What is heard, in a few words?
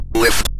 direction left, Synth Voice; Kenney's Sound Pack; Synth Voice 1